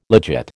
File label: "legit", American